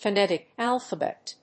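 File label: "phonetic alphabet", English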